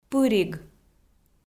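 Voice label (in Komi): "пыриг", Komi, female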